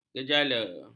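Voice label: "gejala", Malay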